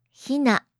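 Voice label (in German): "Hina", japanische Mädchennamen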